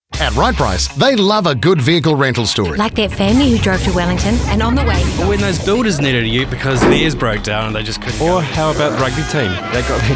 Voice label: radio ad